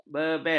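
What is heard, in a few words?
"bebel", Malay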